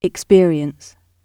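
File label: "experience", British English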